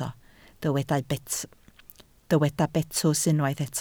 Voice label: "betws", Welsh